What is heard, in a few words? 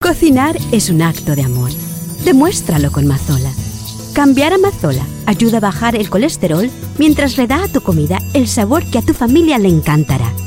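Latin American Spanish, Commercial; GoLocalise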